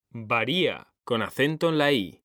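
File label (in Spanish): "varía", Spanish; mekanta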